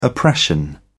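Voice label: "oppression", British English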